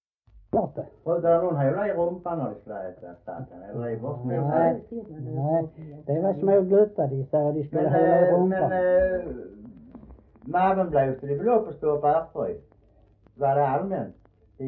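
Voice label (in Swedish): Äspö Skåne, dialekter; Folkminnen; Svenska sagor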